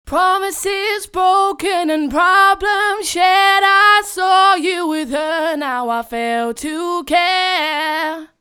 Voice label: pitch correction chromatic